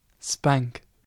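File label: "spank", British English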